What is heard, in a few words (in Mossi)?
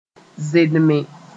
"zendme", moore